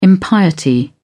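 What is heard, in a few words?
"impiety", British English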